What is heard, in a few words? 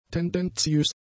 "tendenziös", German; adjectives